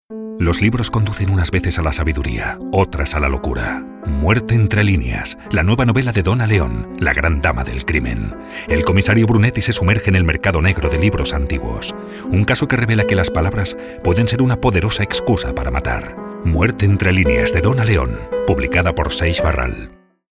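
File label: male Spanish book commercial; ideasonora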